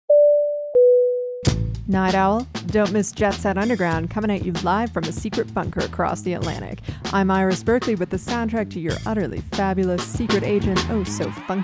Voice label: promo